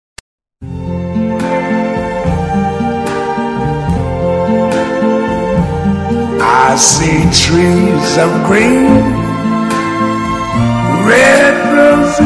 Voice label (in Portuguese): ROM TEU BEIJO MASC